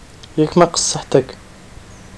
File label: have pain, Arabic